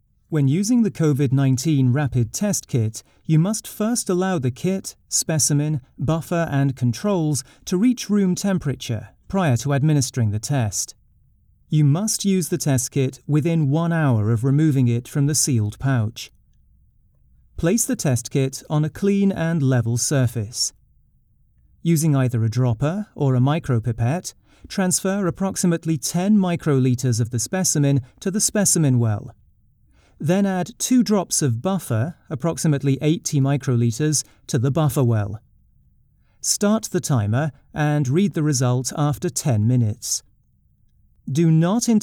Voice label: medical explainer